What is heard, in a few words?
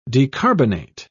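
"decarbonate", English, British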